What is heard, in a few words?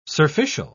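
"surficial", British English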